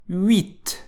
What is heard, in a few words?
number 8, French